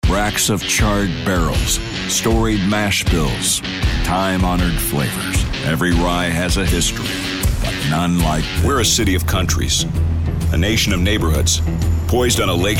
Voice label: deep